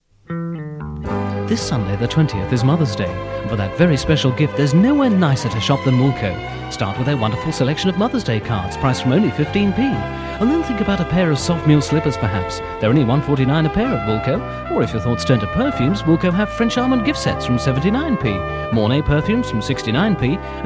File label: Radio Advert; Woolco; Mothers Day; Radio Hallam